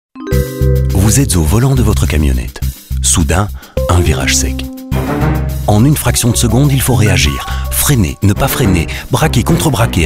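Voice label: French, male, commercial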